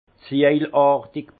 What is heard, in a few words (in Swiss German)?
"ziejlartig", alsace; vin; tuilé